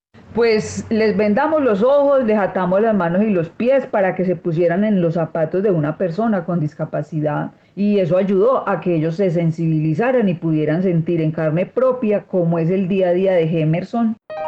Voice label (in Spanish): Mujer Adulta; casting trineo tv